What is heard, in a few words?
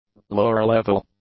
"lower-level", English; adjectives